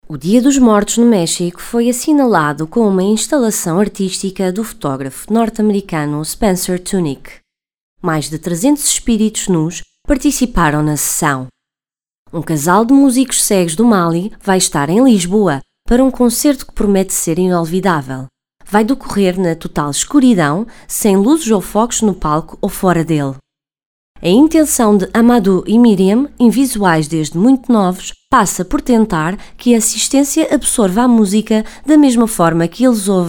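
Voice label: PT F various, Portuguese, female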